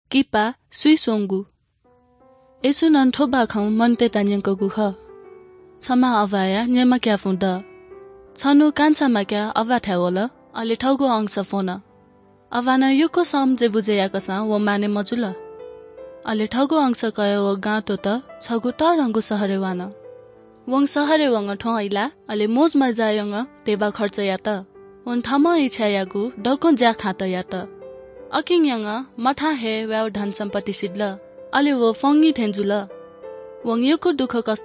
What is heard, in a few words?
good news newari bhaktapur; baalaangu khaan; bible